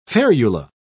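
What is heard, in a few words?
"ferula", British English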